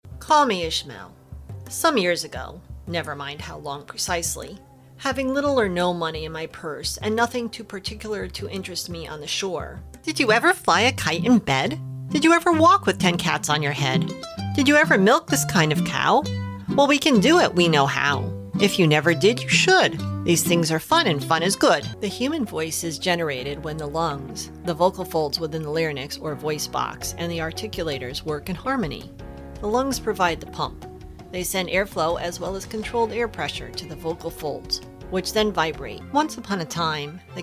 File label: Narration